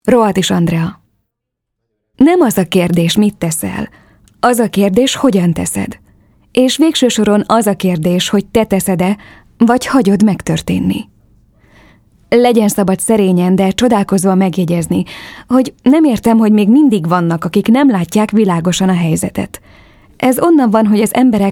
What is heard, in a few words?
hungarian f narration; voice demos